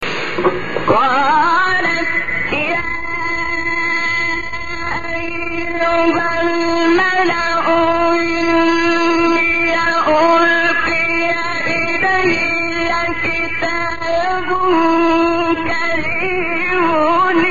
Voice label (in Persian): تلاوت زیبایی از سوره نمل; استاد شحات انور